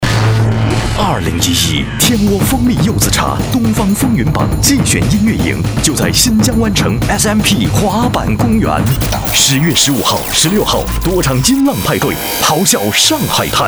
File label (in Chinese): 男, 国语男声配音